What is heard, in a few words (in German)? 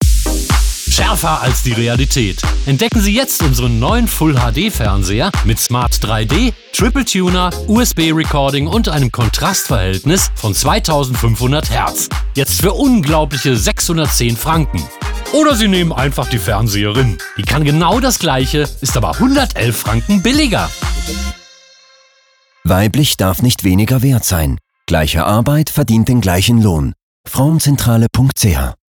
Radio; Frauenzentrale; Fernseherin